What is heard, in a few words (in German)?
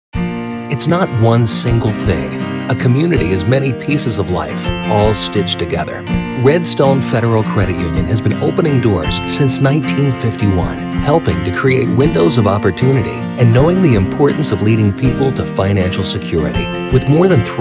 TV Werbespots; Jugendfrei; NATIVE PROFISPRECHER